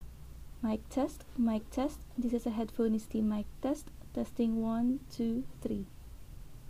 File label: Earbuds Quiet